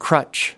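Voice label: "crutch", US English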